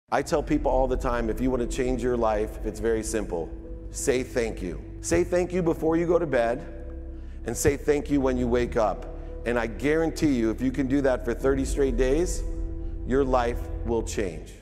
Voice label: Inspirational Speech; David Meltzer Explains How You Can Change Your Life